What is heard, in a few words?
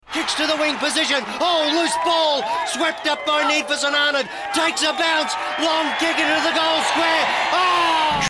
Commentator